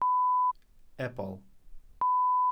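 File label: "ebol", Welsh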